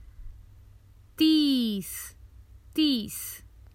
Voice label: Common Hindi Phrases for travel Thirty